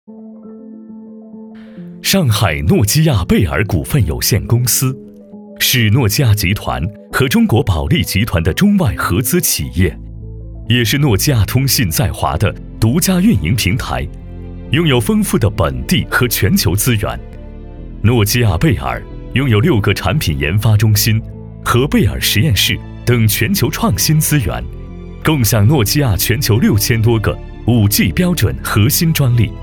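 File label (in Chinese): 男国大音-科技; 上海诺基亚贝尔股份有限公司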